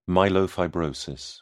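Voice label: "myelofibrosis", British English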